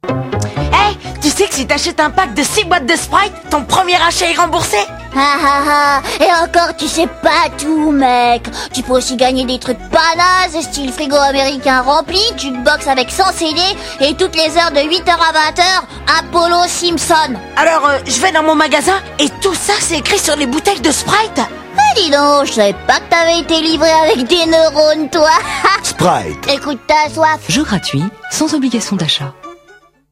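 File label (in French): voix spéciale; sprite; voix bart simpson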